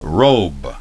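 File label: "robe", English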